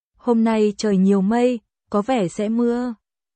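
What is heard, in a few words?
Vietnamese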